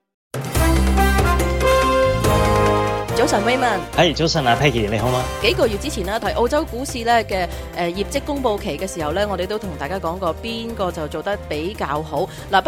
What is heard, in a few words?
cantonese; global finance